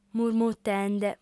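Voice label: "murmutende" Sardinian, female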